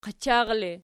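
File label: "qachar" ergative, Archi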